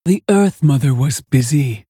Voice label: Female Tauren Play